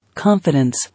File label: "Confidence", English